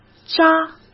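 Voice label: "扎" Mandarin Chinese; hsk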